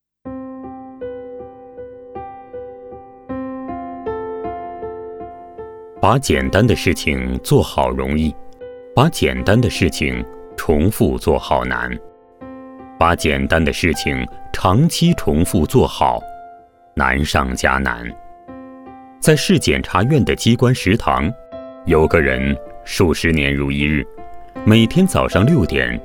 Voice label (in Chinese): 男 人物专题 讲述类